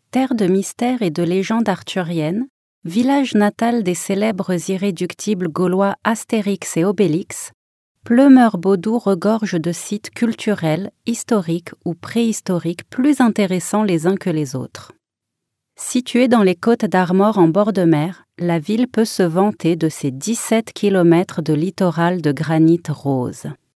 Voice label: Narration, french